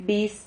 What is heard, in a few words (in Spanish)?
"vis", Silabas